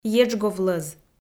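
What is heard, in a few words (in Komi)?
"еджговлӧз", Komi, female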